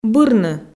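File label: "бырны", Komi, female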